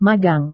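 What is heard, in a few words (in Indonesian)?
"magang", Indonesian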